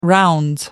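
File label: "rounds", British English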